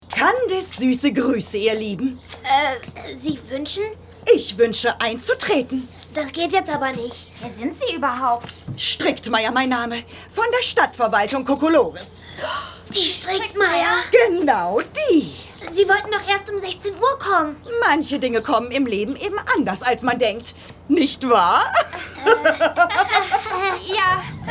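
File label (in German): Hörspiel Mix; PrinzessinEmmy; Kaltleben; Die letztenHelden; BibiBlocksberg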